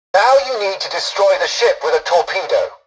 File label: tutorial dialogue, english; War Thunder